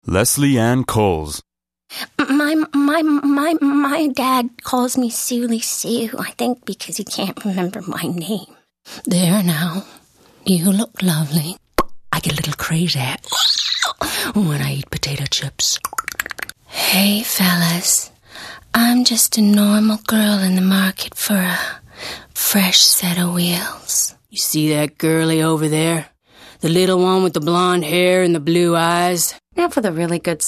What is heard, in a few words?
animation female